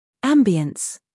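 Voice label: "scandal", American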